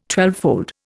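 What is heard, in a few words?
"twelvefold", Indian English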